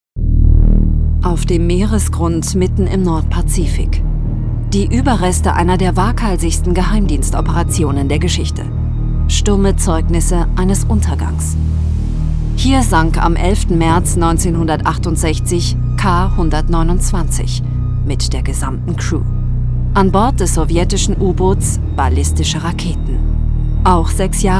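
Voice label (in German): Kommentar Kraftvoll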